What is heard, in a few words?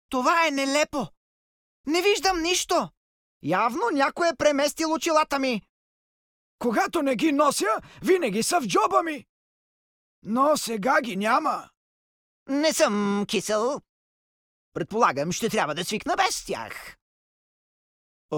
bulgarian m character, voice; voice demos